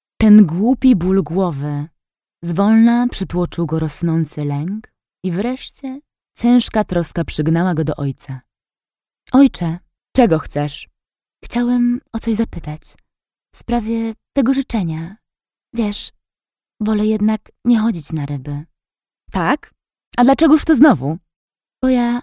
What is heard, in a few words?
audiobook Polish